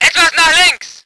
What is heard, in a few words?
voice ger vehicle